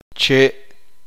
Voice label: "palo", Uspanteko